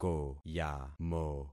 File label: "koyamo", concat syllables; Affixing experiment